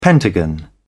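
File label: "pentagon", English, British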